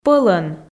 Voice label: "пӧлӧн", Komi

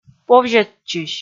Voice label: "повзьӧдчысь", Komi

